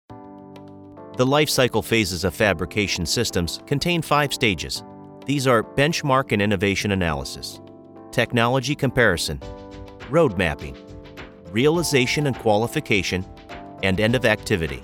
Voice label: Elearning